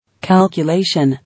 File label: "Calculation", English